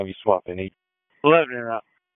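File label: Public Safety Tactical, p25; wake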